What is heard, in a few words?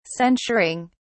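"censuring", British English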